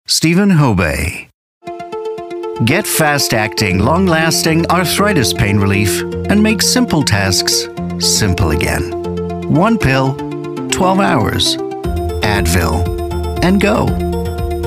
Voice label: Commercial Voice North American

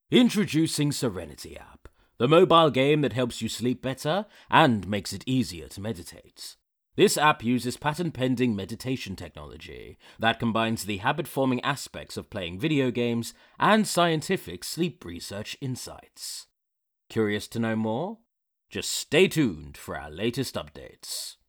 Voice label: English Young Adult Male; SerenityApp

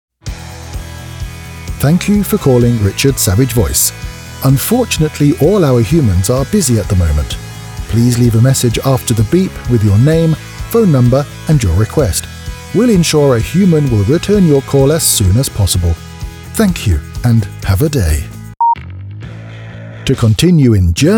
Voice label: IVR Telephony

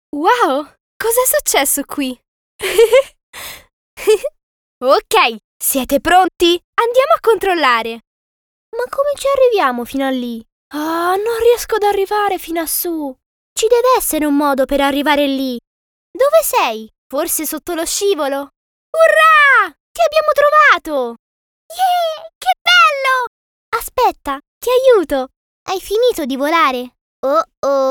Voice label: dubbing

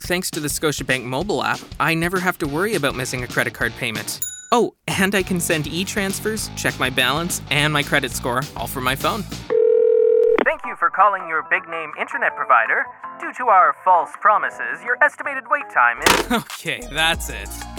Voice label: Commercial

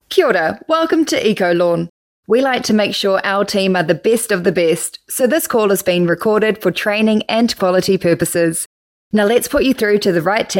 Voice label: PHONE

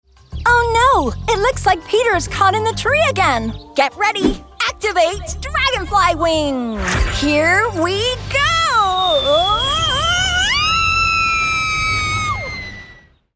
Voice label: american hero girl; dragonfly wings

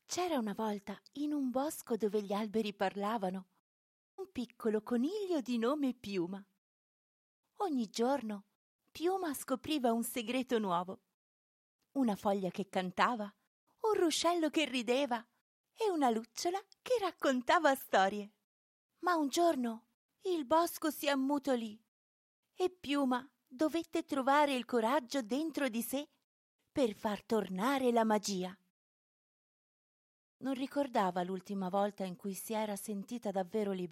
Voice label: audiobook

